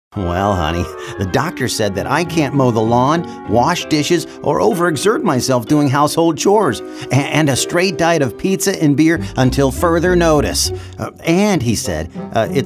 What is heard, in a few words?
Guy Next Door Character